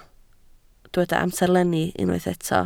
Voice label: "amserlenni", Welsh

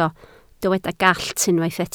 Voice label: "gallt", Welsh

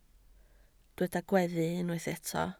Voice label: "gweddu", Welsh

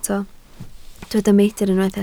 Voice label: "meidr", Welsh

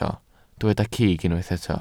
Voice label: "cig", welsh

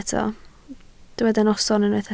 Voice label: "noson", Welsh

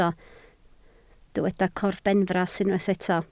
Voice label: "corbenfras", Welsh

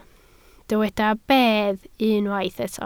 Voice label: "bedd", Welsh

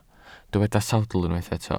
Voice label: "sawdl", Welsh

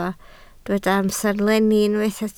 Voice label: "amserlenni", Welsh

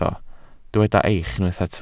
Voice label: "eich", Welsh

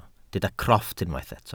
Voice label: "crofft", welsh

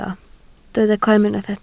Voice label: "clem", Welsh

